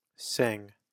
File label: "Sing" pronunciation